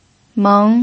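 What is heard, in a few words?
"meng", second tone, Mandarin Chinese pinyin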